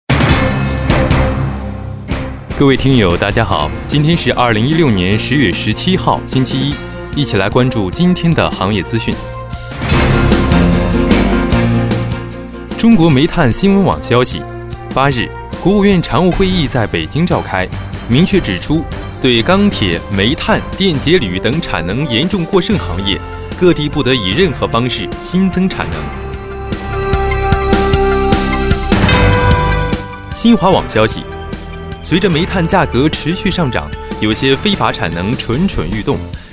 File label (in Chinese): 男-专题-新闻; 中国煤炭新闻